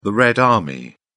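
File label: "red army", British English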